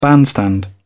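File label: "bandstand", British English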